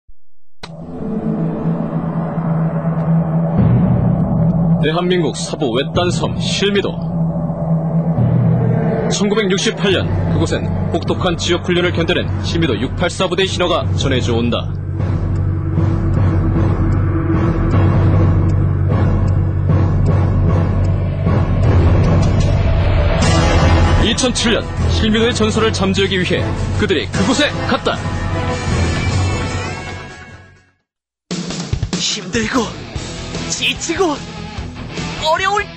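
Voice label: Korean, male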